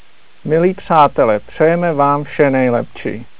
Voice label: czech; Golden Record